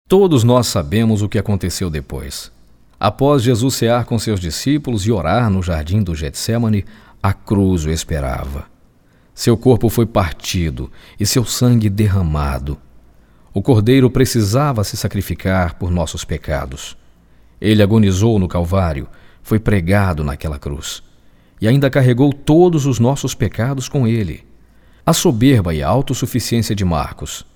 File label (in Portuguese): Narração